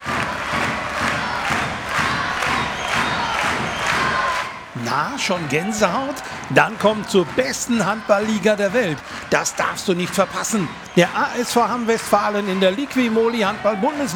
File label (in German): Spot kurzer Text; ASV Hamm Westfalen